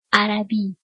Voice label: "Arabic", Persian